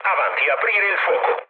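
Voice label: Italian attack position mood low, speech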